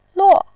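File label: "luo", Mandarin, tone 4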